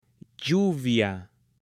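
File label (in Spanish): "LLUVIA", Spanish, male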